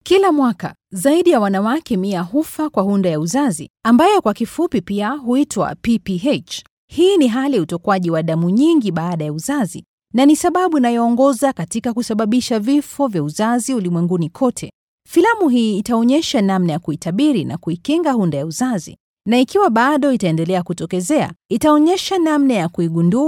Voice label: Swahili Female